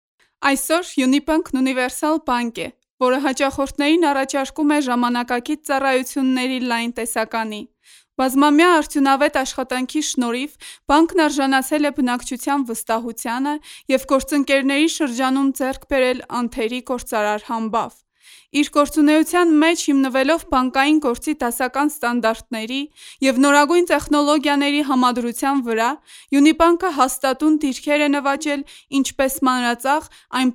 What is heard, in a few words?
Armenian